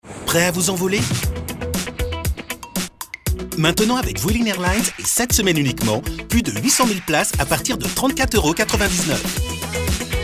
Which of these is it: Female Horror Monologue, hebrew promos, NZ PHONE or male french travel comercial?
male french travel comercial